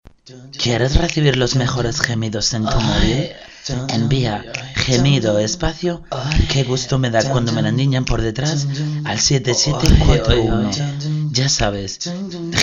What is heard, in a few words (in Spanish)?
Publicidad; Gemi2